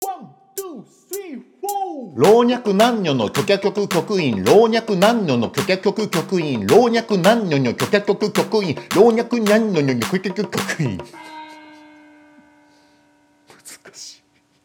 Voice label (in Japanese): 早口言葉リズム（中級編）